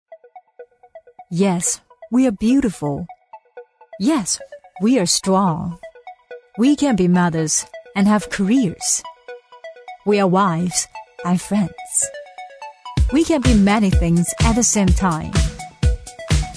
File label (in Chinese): 女声英文; 奔驰